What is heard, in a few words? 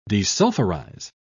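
"desulphurize", British English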